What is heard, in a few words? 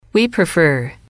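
we prefer, female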